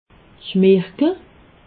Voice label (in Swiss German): "schmirgel", alsace